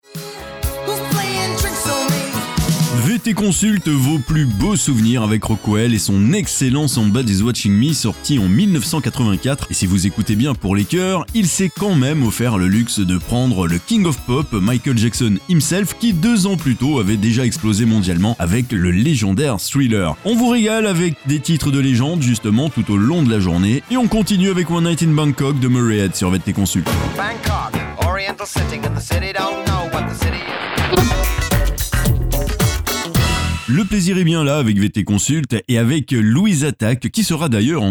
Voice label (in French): adulte